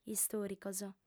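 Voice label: "istòricos", female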